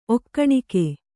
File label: "ಒಕ್ಕಣಿಕೆ", Kannada